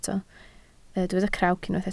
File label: "crawc", Welsh